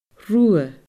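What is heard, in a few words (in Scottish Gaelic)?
"rubha", Scottish Gaelic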